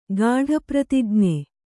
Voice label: "ಗಾಢಪ್ರತಿಜ್ಞೆ", Kannada; gāḍha pratijñe; noun